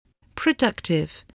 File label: "productive", voice, spelling